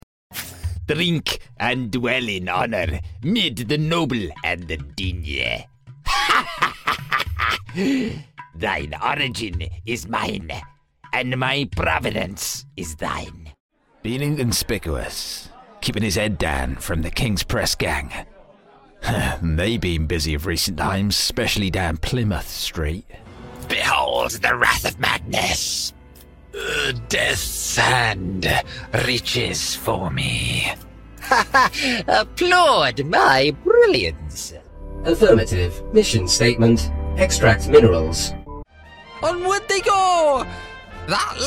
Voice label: english british video games